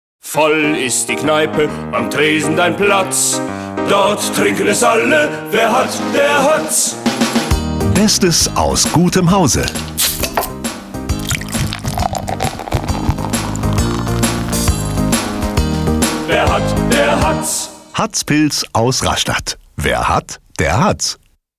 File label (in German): Werbung; Hatz Werbespot 4